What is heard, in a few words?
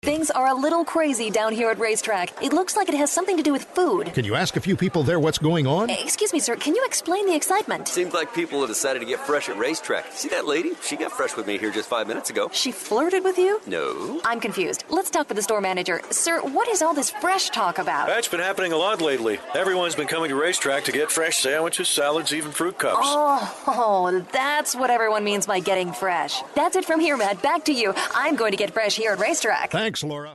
REPORTER